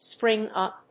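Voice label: "spring-up", English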